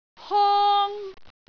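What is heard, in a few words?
"hong1", Cantonese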